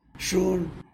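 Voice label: "eight", Tlacolula Zapotec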